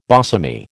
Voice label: "bosomy", American